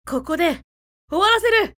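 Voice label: female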